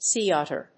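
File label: "sea otter", English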